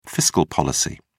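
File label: "fiscal policy", British English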